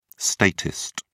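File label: "statist", British English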